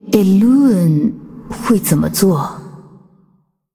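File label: Female, Chinese; Ghost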